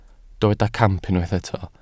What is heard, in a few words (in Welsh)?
"camp", Welsh